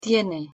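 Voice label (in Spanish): "tiene", Spanish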